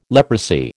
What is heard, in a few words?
"leprosy", American